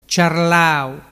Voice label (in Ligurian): "ciarlau", dialettu